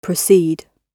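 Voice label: "proceed", British English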